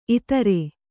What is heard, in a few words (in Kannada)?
"ಇತ್ತೆರ", Kannada